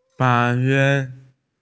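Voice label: "white clouds", Mandarin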